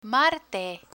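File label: "marte", Spanish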